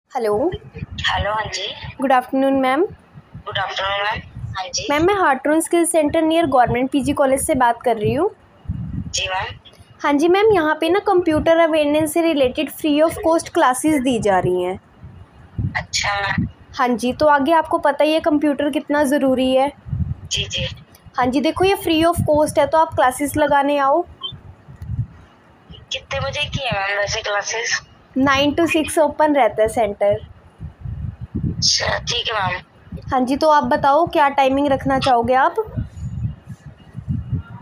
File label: call recording